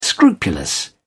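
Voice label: "scrupulous", English, British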